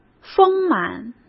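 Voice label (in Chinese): "丰满", Mandarin Chinese; hsk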